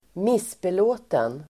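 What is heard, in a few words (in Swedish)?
"missbelåten", Swedish; missbelåten adjektiv, displeased; Uttal: [²m'is:belå:ten]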